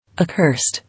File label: "Accursed", English